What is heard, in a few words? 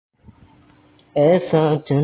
Voice Note